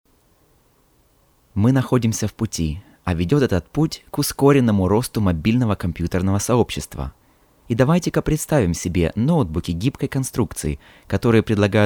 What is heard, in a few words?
Russian